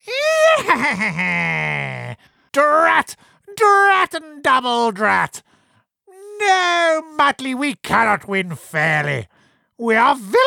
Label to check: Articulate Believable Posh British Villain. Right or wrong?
Right